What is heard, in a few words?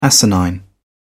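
"asinine", English, British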